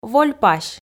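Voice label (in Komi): "вольпась", Komi, female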